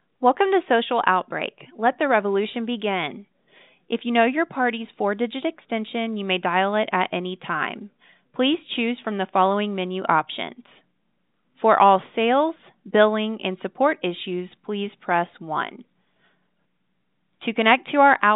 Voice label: Main Greeting Recording message; SocialOutbreak; Vlocalocity